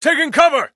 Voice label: Us Neutral